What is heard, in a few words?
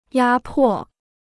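"压迫", Chinese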